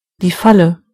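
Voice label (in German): "trap", German; gcompris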